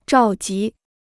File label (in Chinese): "召集", Chinese